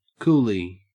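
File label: "coolie", Australian English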